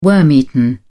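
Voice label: "worm-eaten", British English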